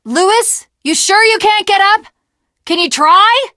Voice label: jumping off bridge, teengirl; Left 4 Dead; Source Engine; video games